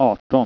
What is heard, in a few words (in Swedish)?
"arton", Swedish